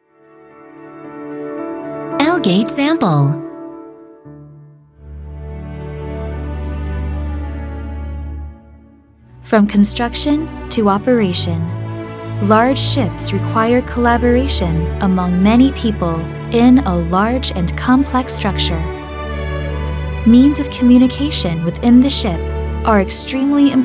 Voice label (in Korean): 영어 여 차분한 톤